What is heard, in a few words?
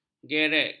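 "gerek", Malay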